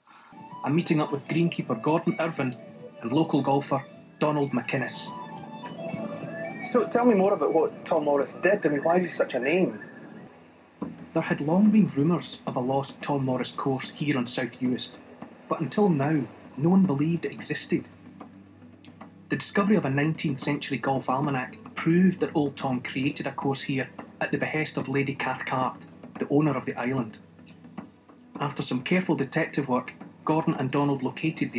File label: A Scottish TV presenter, standard scottish english